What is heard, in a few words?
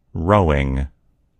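"rowing", American English; gcompris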